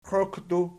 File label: "qioqdu", Archi